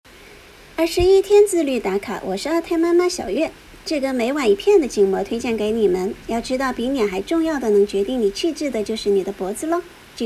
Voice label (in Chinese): 广告配音